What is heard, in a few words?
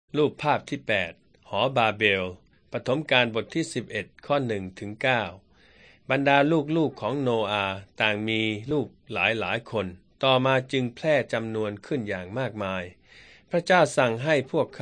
beginning with god thai central